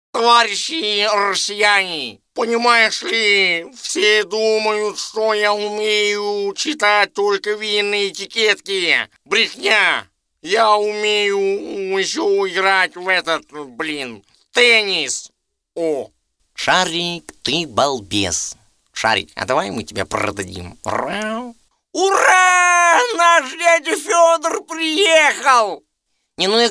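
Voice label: Parody Russian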